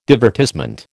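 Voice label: "divertissement", American English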